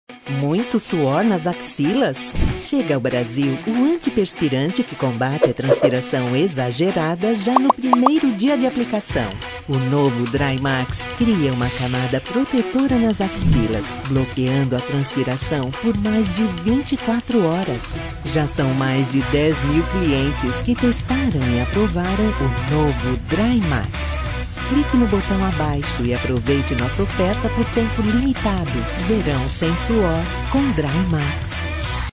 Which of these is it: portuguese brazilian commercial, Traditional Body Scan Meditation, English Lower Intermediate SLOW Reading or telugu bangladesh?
portuguese brazilian commercial